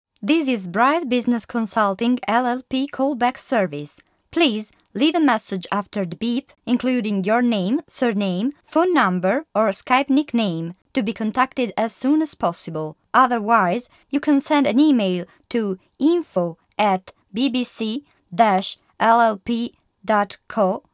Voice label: bright english